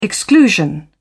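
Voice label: "exclusion", British English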